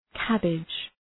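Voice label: "cabbage", English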